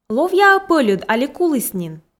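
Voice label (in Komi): ловъя-ӧ пӧльыд али куліс нин, Komi, female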